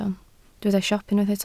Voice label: "siop", Welsh